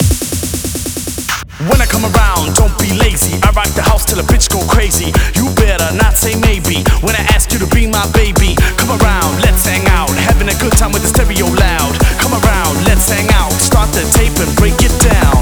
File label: Rap Mix, 128 BPM; Vocal-Hook Kits